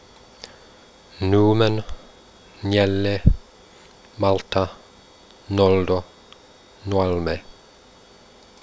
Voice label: quenya late nasals